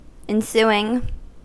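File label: "ensuing", US English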